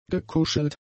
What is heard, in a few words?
"gekuschelt", German; adjectives